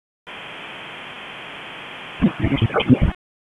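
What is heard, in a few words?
Police Northeast District Dispatch, p25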